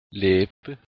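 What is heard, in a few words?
"leb", Proto-Indo-European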